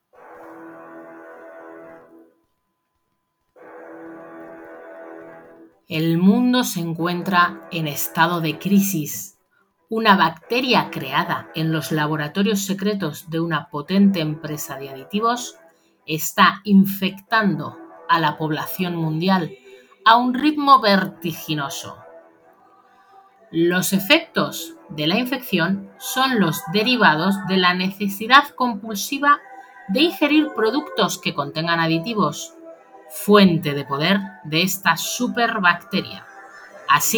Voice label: Audio narrativa

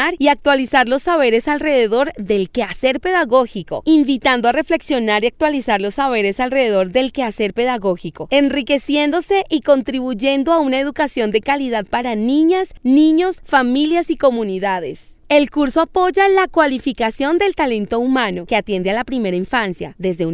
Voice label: GUION MAS COMUNITARIO INTRODUCCION VOZ FEMENINA

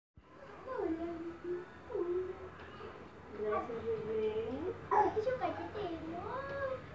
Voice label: Nota de voz

